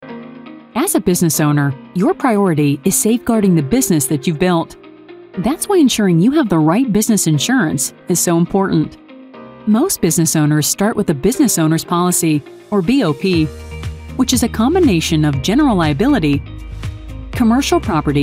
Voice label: corporate narration